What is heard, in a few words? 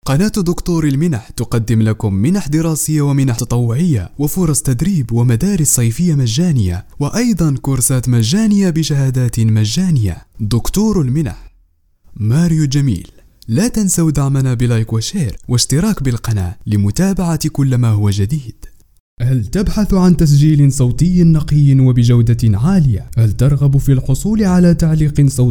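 Arabic, male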